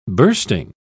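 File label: "bursting", British English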